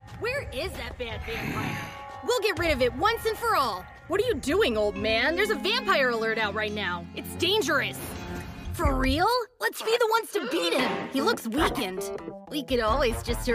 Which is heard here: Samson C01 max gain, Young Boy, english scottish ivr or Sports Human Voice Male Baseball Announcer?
Young Boy